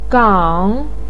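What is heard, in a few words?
"gang3", Mandarin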